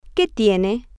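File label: Spanish, whats wrong, female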